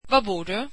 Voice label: swedish Where do you live

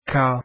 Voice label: arabic alphabet kaa

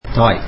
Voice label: tamil mother

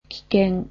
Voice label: "danger", japanese

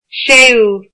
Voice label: "sixth", Irish

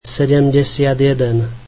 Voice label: slovak seventy one

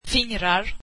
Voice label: swedish fingers

